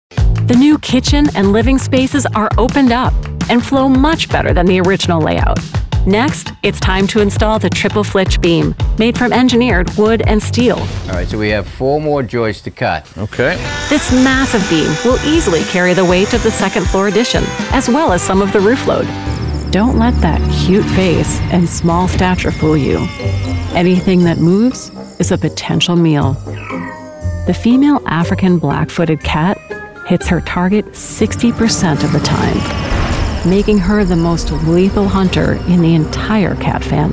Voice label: Variety Narration